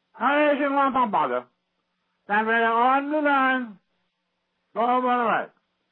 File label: metro announcement